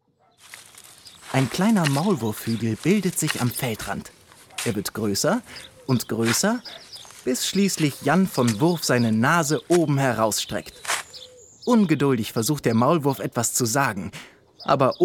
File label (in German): Hörbuch